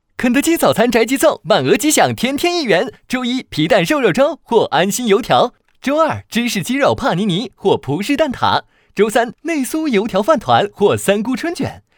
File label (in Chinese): 男,广告